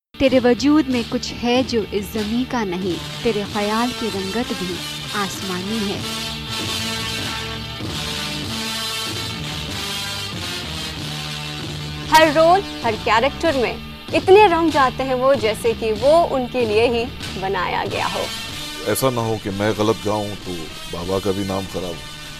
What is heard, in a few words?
Commercial